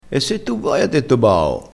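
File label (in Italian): "e se tu voi ha detto bao", dialettale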